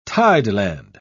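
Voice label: "tideland", British English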